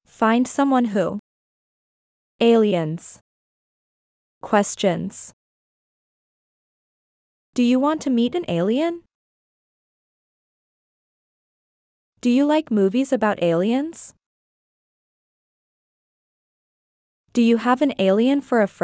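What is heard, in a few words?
American; aliens find someone who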